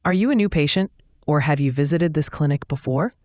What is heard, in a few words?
question english